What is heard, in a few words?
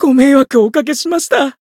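Voice healed, Japanese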